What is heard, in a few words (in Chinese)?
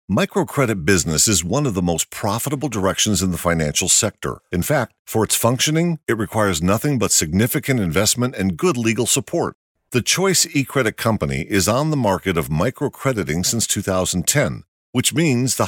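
外籍男, 英语配音; 金融类专题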